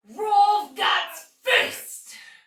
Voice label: Attack Heavy, Soldier Female, Battle; Dialog; Barks; Sinecure